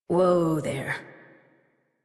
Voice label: Horse Stop Walk Adult; Warframe; Duviri